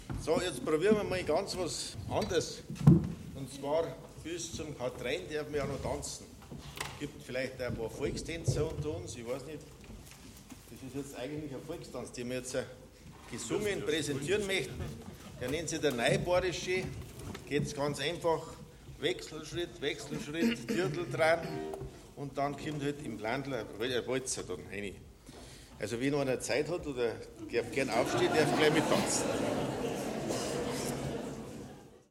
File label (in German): Ansage; Neuboarischer